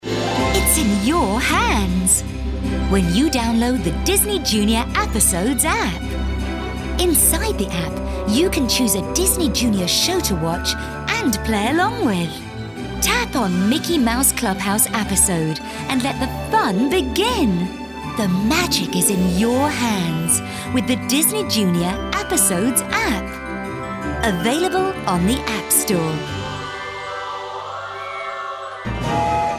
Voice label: Childrens